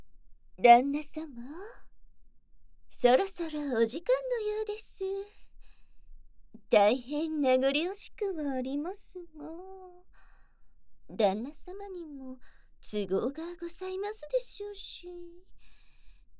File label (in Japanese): エンディング（囁き強化編集）; 囁き強化・重視差分 効果音なし